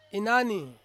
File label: "inani", Ansus; mother